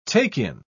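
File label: "take-in", British English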